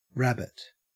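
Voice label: "rabbit", Australian English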